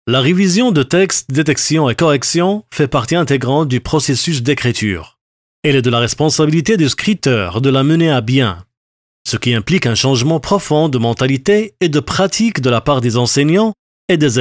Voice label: locutor marruecos frances french moroccan voiceover; locutor francés marroquí